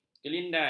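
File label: "kelindan", Malay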